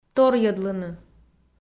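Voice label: "торйӧдлыны", Komi, female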